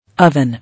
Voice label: "Oven", English